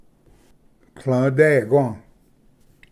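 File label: "tlvdequa", Cherokee; ᏢᏕᏆ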